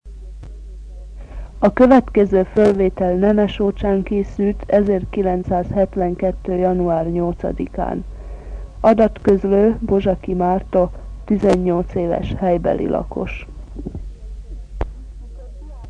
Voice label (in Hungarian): nyelvjaras gyujtesek